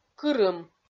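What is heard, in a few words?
"кырым", Komi, female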